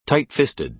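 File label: "tight-fisted", American English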